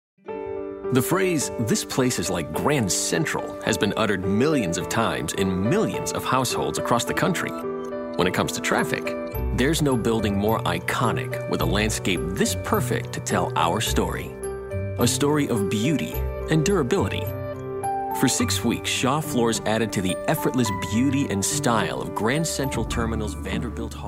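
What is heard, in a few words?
narration, Voiceover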